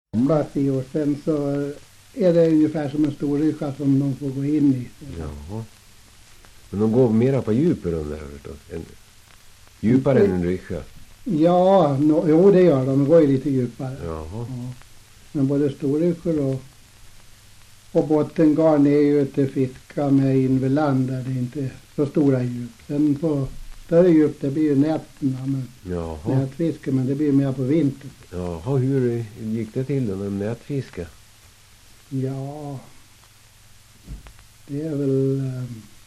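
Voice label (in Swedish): Västerås-Barkarö Västmanland, Svenska sagor, dialekter; Folkminnen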